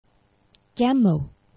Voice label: "gemo", Esperanto; geneva